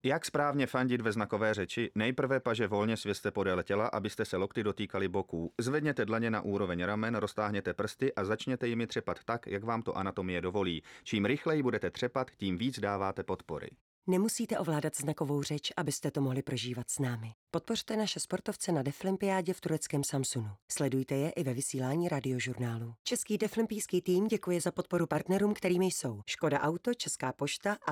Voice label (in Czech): Radiospot; Deaflympiada